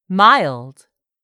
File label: "MILD", english; 3000 words